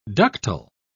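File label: "ductile", English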